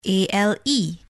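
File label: ale spell, English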